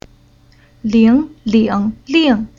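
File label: "Ling", Chinese syllable; Уроки фонетического блока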